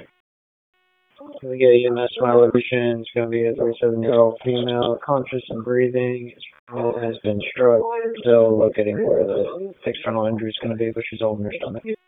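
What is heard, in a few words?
Police Dispatch; Raleigh; Southwest District; wake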